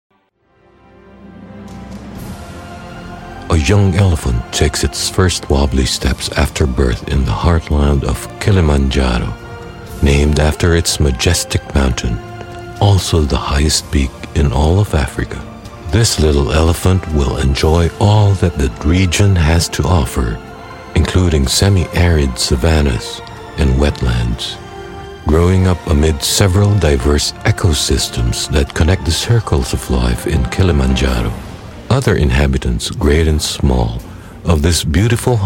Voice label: voice documentary